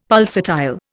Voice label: "pulsatile", Indian; Dictionary